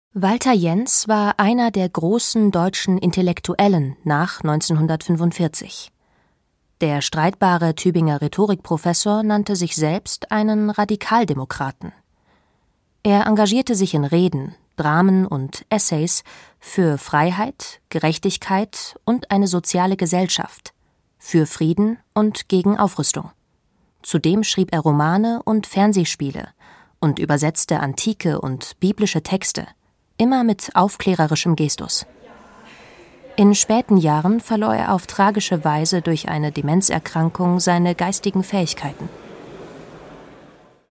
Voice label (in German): Kommentar Wissen; SWR2